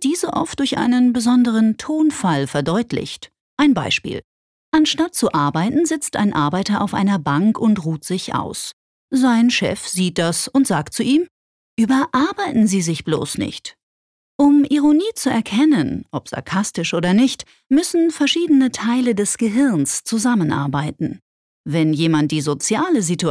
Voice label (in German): Sarkasmus Freundlich